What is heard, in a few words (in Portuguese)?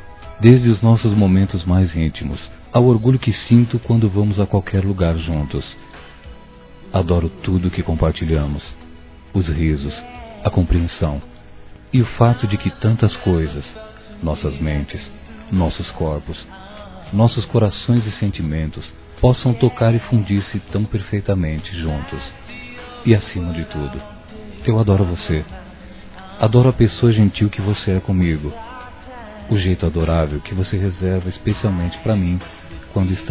Aniversário Romantico Masculino; Aniversários